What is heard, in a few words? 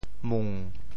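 "mung7", Teochew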